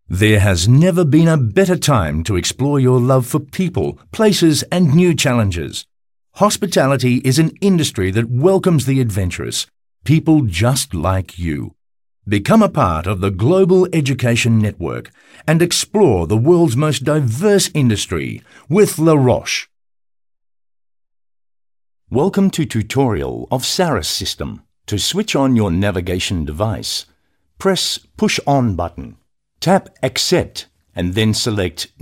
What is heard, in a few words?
voices ENGLISH male adult AUSTRALIA; KER-SOUND